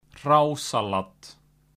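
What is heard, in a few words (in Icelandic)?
"hrásalat", Icelandic; matarhefti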